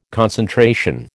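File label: "concentration", American English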